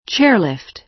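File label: "chairlift", American English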